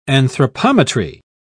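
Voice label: "anthropometry", English, British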